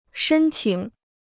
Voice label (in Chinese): "申请", Chinese